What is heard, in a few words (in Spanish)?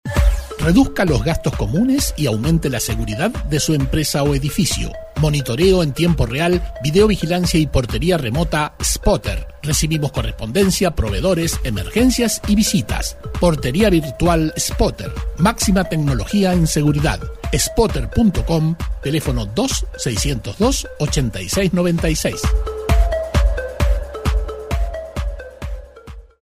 comercial